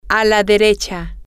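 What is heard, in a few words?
to The Right, Spanish, female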